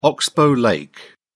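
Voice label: "oxbow lake", British English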